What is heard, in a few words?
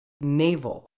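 "navel", British English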